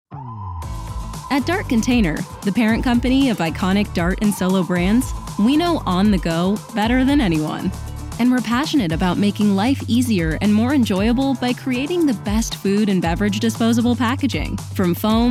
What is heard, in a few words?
english american corporate videos